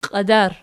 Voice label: "qiadar", Archi